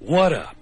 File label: what up announcer; Voice; introductions; what's up